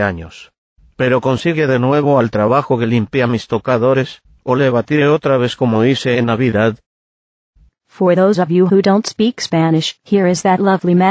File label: Commercial happy birthday software; fake commercials